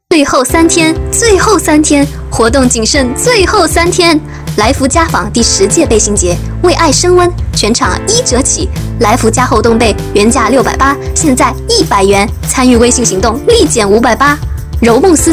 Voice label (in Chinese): 广告 家纺 品牌 促销 叫卖 热情 活力